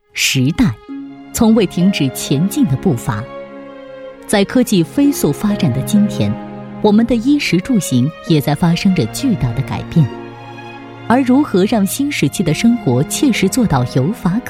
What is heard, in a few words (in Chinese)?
宣传片讲述